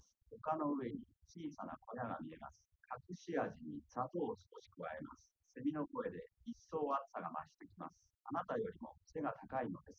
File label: male liverec; SiSEC10; underdetermined